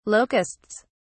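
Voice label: "locusts", English, British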